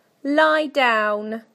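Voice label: "lie down", British English; PictureDictionary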